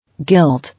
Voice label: "Guilt", English